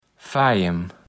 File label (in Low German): "Fajem", kaevels platt